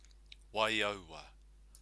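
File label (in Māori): "waiaua", Māori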